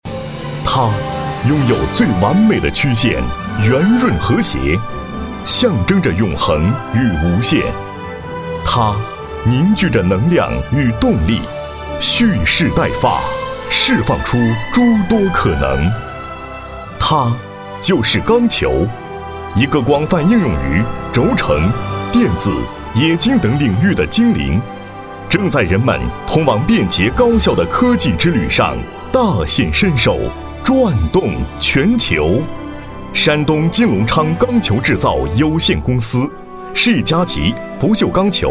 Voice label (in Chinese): 男80 钢球, 国语男声配音; 男80央视农广